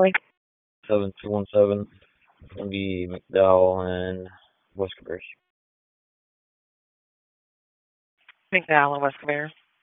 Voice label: Police Southeast District Disp, p25; Raleigh

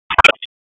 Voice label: Raleigh Police LE Ops, p25

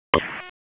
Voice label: FIRE OPS, p25

